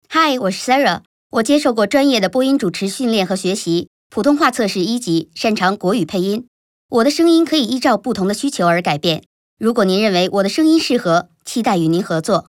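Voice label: Chinese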